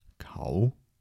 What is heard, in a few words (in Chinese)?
丂-台城-男, 台山话